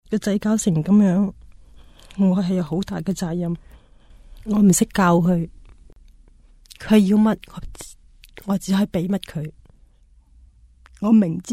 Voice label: cantonese; mother; radio